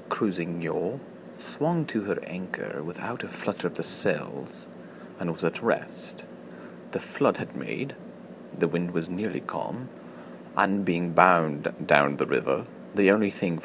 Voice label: narrator